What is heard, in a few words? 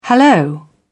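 "hallo", British English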